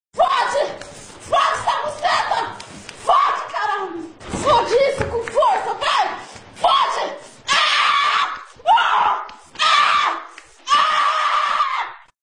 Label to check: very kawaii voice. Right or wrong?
Right